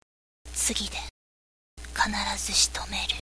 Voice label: girl